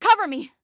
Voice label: Defend Me, Female; Female 02

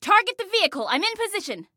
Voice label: Target Vehicle, Female; T2 Female 01

